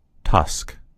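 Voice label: "tusk", American English; gcompris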